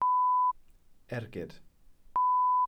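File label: "ergyd", Welsh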